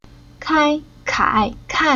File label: "Kai", Chinese; Уроки фонетического блока